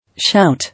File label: "Shout", English